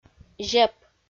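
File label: "зеп", Komi-Zyrian, female; audio dict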